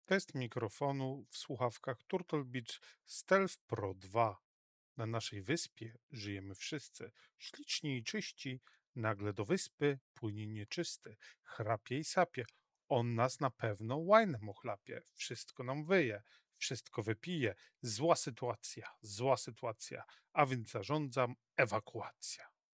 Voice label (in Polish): Turtle Beach Stealth Pro II probka audio